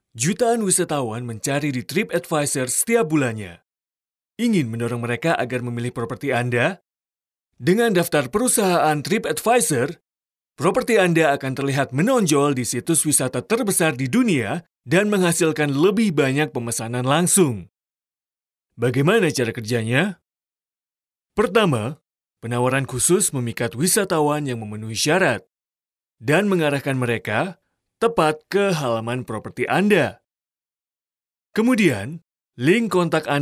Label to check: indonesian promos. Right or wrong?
Right